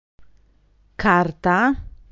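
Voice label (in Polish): "karta", polaco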